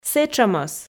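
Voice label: "сэтшӧмӧс", Komi, female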